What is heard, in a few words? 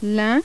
french